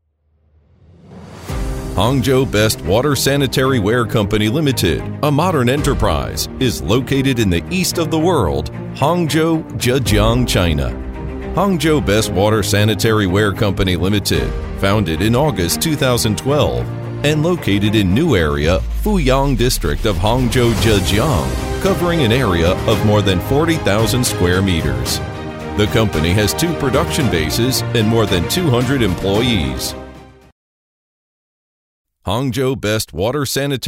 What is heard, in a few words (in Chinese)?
外籍 英文样; 百维艾特英文样